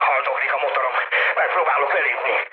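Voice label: Hungarian damaged engine mood high, speech; War Thunder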